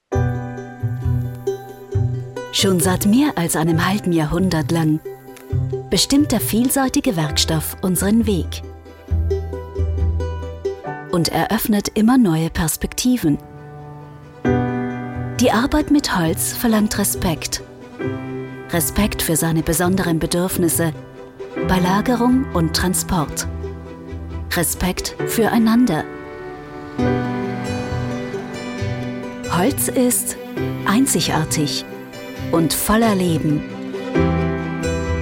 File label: female austrian german and german austrian corporate